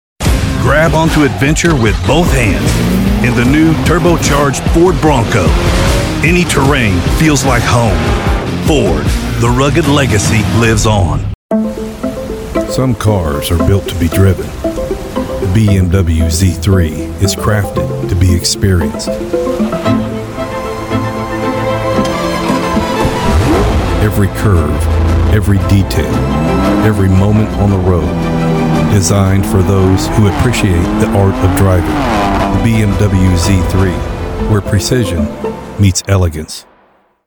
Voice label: automotive, conversational, gravitas male voice over